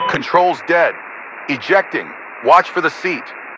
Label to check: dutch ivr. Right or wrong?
Wrong